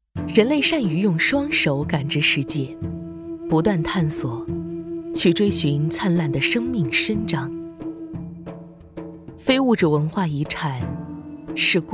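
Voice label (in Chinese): 女国大音-非遗; 乌当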